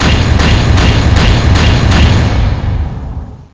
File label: evil guilty; evil manfred